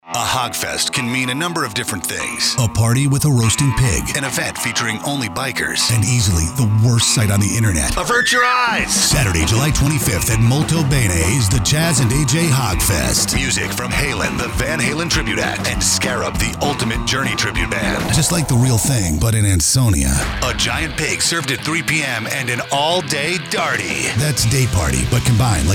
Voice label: Hog Fest Promo